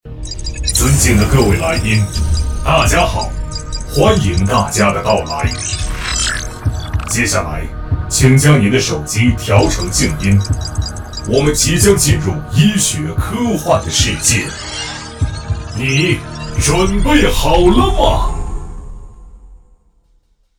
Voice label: 男 开场白 机器人音

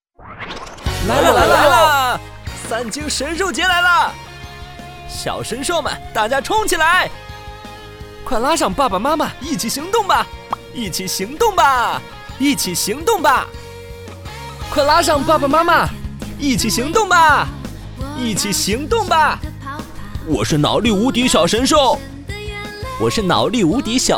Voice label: 男 宣传片 动画口播

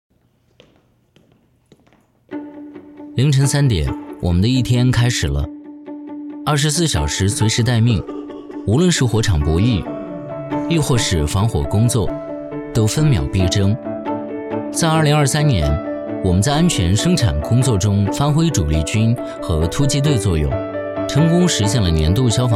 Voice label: 男 宣传片 年轻自然; 藤县消防

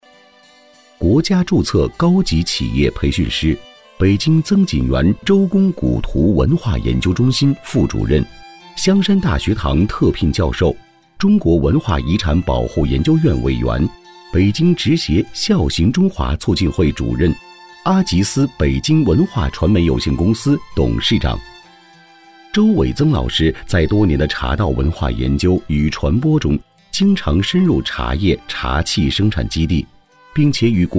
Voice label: 男 人物专题

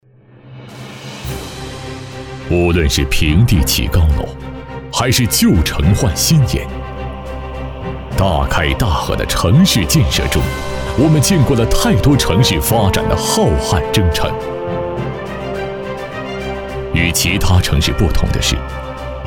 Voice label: 男 专题 企业 宣传片; 凤凰网; 大和平地块

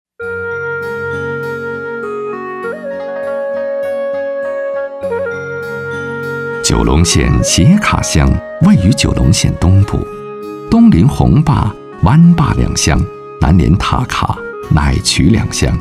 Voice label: 男, 专题; 斜卡尼汝藏族山歌

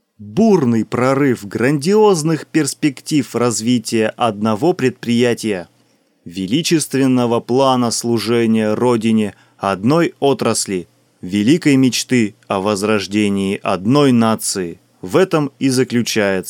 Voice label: 外籍俄语男, 企业宣传片

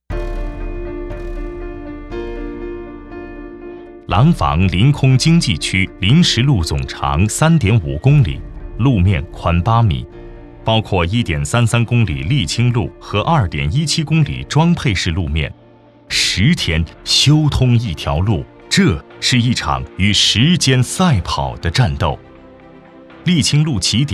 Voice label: 男 政府汇报; 廊坊道路

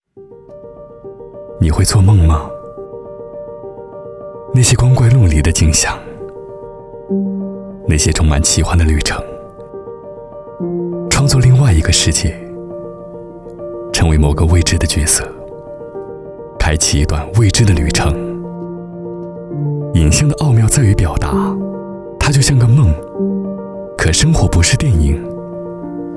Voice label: 男 科技感 科技感磁性低沉

